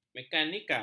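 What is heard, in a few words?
"mekanikal", Malay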